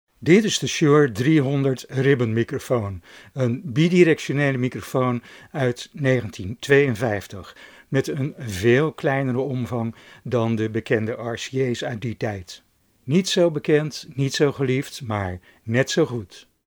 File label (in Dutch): Shure 300 sound NL